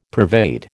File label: "pervade", American